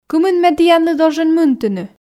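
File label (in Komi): кымын ме тіянлы должен мынтыны, Komi, female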